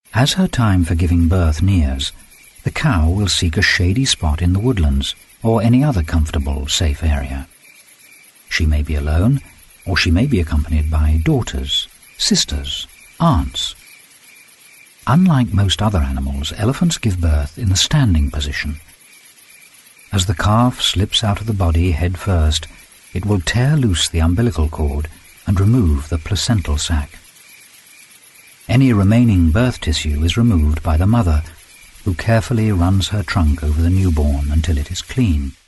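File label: Documentary Reel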